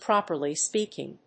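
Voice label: "properly speaking", English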